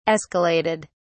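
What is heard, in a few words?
"escalated", British English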